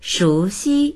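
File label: "shu2xi1", Mandarin Chinese; 熟悉; 熟知（する、している）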